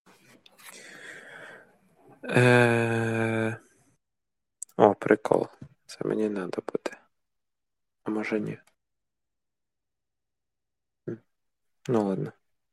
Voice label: asmr